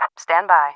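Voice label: standby, English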